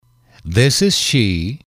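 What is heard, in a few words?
"this is she", English, male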